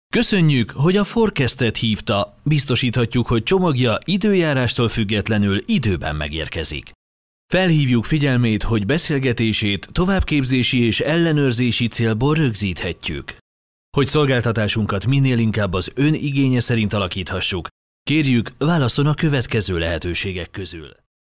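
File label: Hungarian, male, elearning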